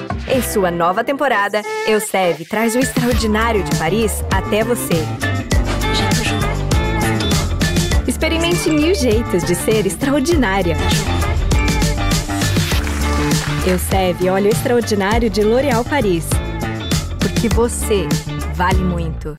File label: female brazilian portuguese commercial; L'Oréal Paris